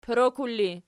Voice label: "porokul", Archi

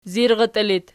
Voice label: "zirratii", Archi

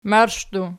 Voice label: "marshdu", Archi

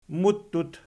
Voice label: "muttut", Archi

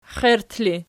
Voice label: xiert erg, archi

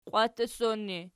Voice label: "qwattutsson", Archi

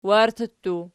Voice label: warti pl, archi

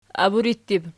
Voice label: "aburittib", Archi

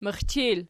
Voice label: "mixchil", Archi

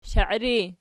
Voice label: "shairi", Archi

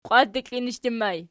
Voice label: "qiwatdiklinishdur", Archi

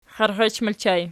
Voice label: xierxech ergpl, archi

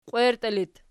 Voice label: "qwierti", Archi

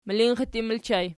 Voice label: "milinxittin", Archi

